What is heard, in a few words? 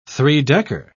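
"three-decker", British English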